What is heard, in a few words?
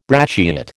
"brachiate", American